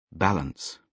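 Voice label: "balance", British English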